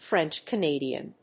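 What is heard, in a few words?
"French-Canadian", English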